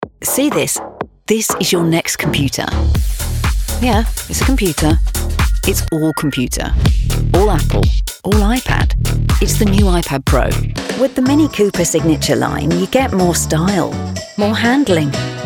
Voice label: commercial; Storyteller Media; REEL; Innovative Artists; Voiceover